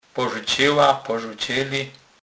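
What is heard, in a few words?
"porzucec", Polish, Kurpie dialect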